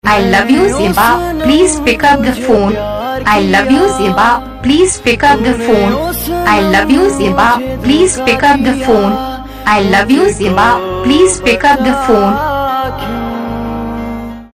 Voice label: I LOVE YOU PLEASE PICKUP THE PHONE saying, Ringtones; Your Name Ringtones A To Z Names